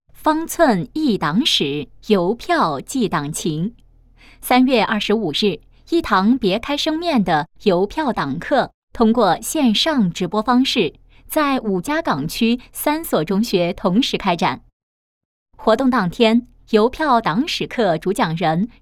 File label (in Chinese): 女, 党建; 方寸忆党史邮票寄党情